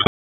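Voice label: EMS DISP, radio; wake; p25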